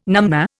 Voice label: "numnah", Indian